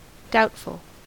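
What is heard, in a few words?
"doubtful", US English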